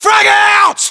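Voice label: British inform attack grenade, battlechatter, english; uk; localized english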